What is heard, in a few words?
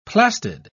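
"plastid", British English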